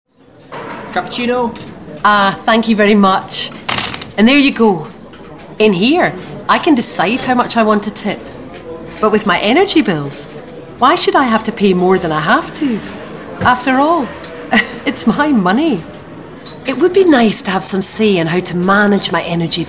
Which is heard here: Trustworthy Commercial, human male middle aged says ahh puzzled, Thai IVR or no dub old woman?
Trustworthy Commercial